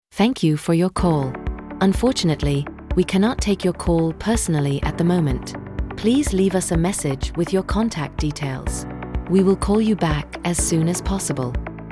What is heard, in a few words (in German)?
standard Ansage